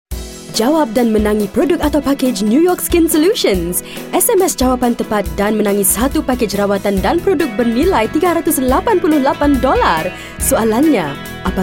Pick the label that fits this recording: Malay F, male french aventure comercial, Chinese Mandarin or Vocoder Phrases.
Malay F